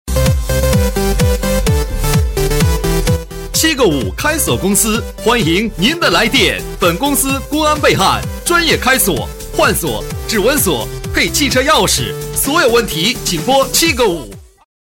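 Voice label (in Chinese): 男促销; 开锁公司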